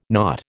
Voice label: "nought", American

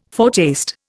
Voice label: "foretaste", Indian

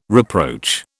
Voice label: "reproach", British

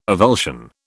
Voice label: "avulsion", British

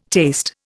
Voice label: "taste", Indian

